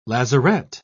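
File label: "lazarette", English, British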